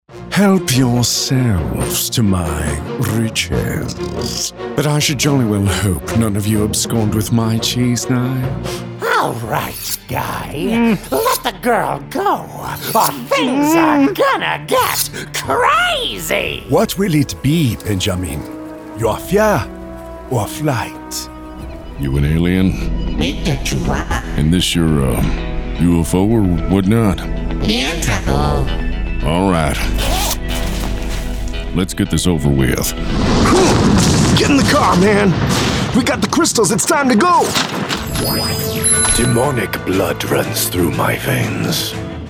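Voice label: animation men, voices men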